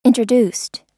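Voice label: "introduced", US English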